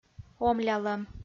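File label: "омлялӧм" Komi-Zyrian, female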